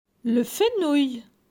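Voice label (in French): "Le fenouil", French; vocabulaire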